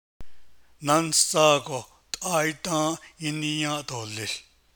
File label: When I get back you will should already have eat, Navajo; Náńsdzáago t’áá ’íídą́ą́’ ’ííníyą́ą’ dooleeł.; Navajo Adverb Lexicon